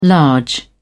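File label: "large" English word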